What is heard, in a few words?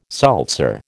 "seltzer", American